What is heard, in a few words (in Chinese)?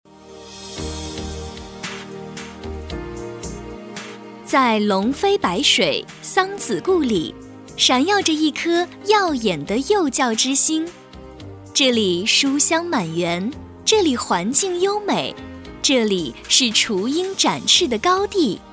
女, 专题片 幼儿园宣传片